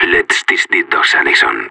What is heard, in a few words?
radio lock n load; Counter Strike; CS:GO; separatist